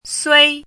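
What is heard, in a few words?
"sui1" Mandarin Chinese, high level tone; chinese-voice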